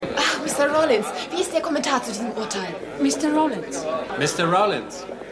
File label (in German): Reporterin Reporter